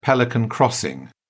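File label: "pelican crossing", English, British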